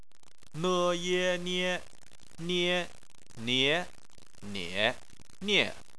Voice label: "nie", chinese

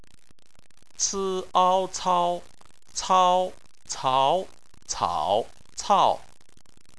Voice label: "cao", Chinese

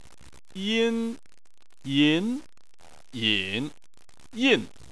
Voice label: "yin", chinese

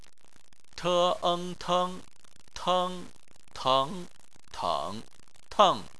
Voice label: "teng", chinese

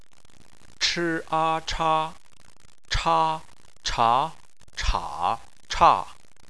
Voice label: "cha", Chinese

